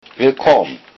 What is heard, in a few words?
welcome, lowsaxon